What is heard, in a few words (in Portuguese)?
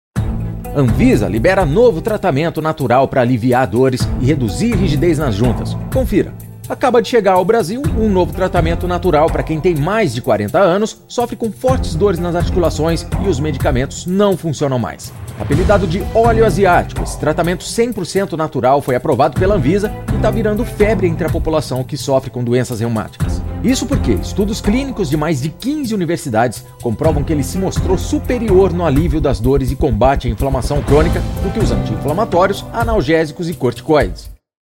voz jornalistica